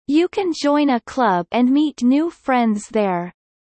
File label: low; studying abroad